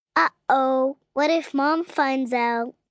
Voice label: What If Mom Found, 1 girl, 5 yrs; Talking; Voice Box